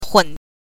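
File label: "hun", Mandarin Chinese pinyin, neutral tone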